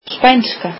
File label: "Spanish", Icelandic